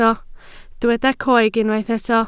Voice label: "coeg", Welsh